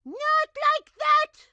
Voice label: grandma chopper annoyed; Blind Drive

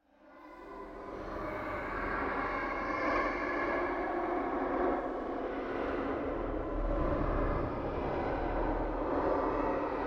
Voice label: Children Talking In Heavy Reverb Eerie Drone; Hollywood Edge; sound designer's toolkit v2

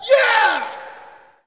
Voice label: Hockey Male "Yeah"; Hockey Vocal Sounds

